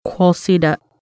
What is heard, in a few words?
quil ceda, Lushootseed